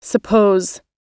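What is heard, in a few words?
"suppose", English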